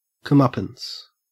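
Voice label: "comeuppance", Australian English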